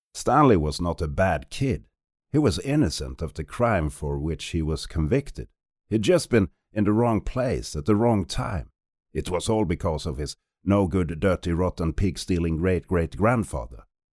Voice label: male swedish voice over talent english